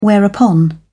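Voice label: "whereupon", British English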